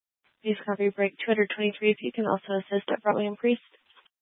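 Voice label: Tempe Police Department, scanner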